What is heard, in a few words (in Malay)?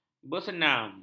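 "bersenam", Malay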